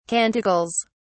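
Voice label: "canticles", British English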